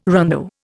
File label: "rondeau", Indian